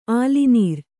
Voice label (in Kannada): "ಆಲಿನೀರ್", Kannada